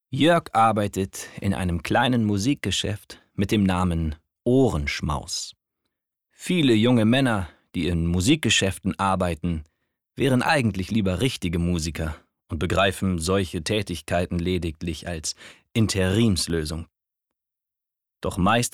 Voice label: Lesung

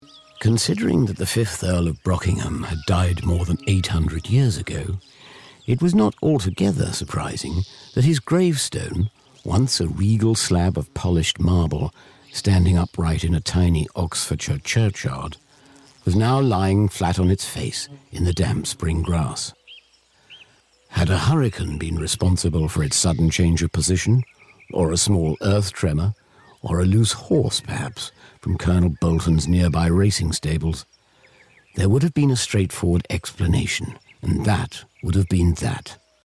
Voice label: uk englisch; voxhaus

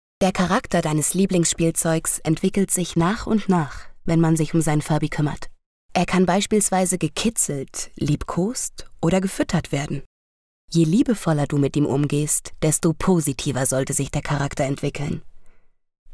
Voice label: eLearning Spielzeug; LOFT VOICES

